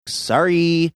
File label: saying sorry funny